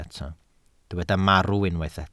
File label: "marw", welsh